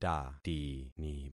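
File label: "tatini", concatenated syllables; Affixing experiment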